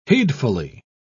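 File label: "heedfully", British English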